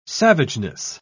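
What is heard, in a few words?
"savageness", English, British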